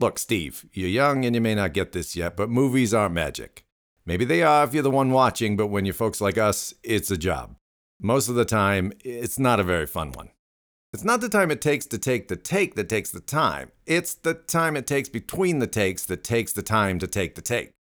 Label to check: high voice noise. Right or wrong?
Wrong